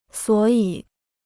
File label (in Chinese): "所以", Chinese